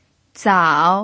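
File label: "zao3", Mandarin Chinese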